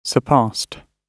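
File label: "surpassed", British English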